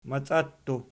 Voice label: "maciattu", Archi